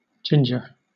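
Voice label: "ginger", British English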